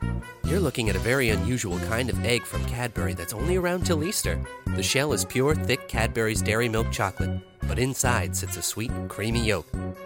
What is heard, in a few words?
voice over